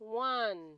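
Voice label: "one", Nigerian Pidgin, female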